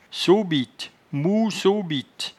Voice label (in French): "sobite", patois jura